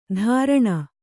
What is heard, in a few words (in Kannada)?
"ಧಾರಣ", Kannada